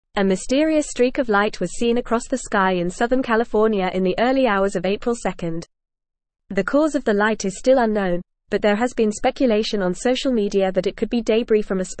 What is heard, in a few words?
English Advanced FAST Reading; English Newsroom; Mysterious streaks of light in southern California sky